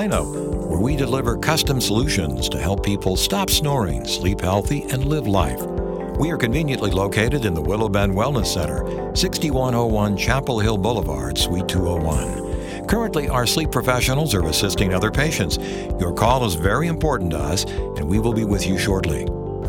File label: Telephone Messaging